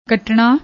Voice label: "katnna", Punjabi; ਕੱਟਣਾ